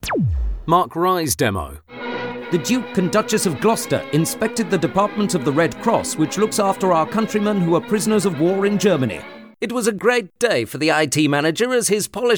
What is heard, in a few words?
1950s British Pathe Newsreader